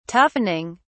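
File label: "toughening", English, British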